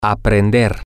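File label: male, spanish; to Learn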